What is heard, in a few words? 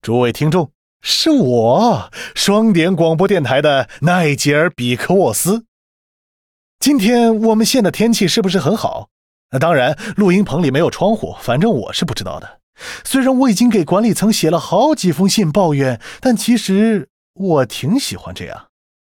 Mandarin; 奈杰尔（双点校园）; 开场白